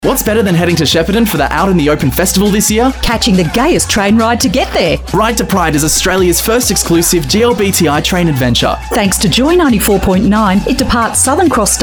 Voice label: radio advert; Ride2Pride